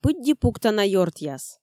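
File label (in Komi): пыдди пуктана ёртъяс, Komi, female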